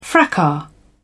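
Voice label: "fracas", English, British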